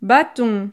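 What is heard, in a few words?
"baton", French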